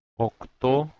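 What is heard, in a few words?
"oktou", Proto-Indo-European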